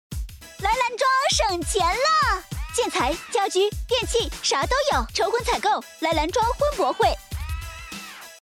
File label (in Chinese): 促销广告